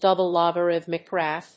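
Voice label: "double-logarithmic graph", English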